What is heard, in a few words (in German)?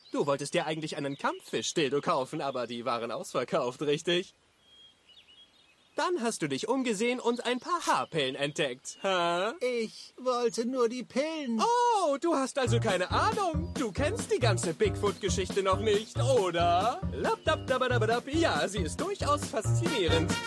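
synchron, sprecher; china; LOFT VOICES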